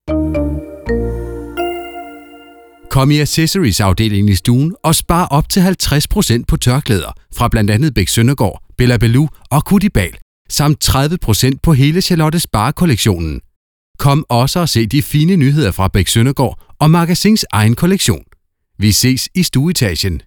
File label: Instore; MagasinKgsNytorv